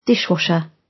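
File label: "dishwasher" British; Technology; Công nghệ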